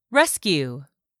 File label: "RESCUE", english; 3000 words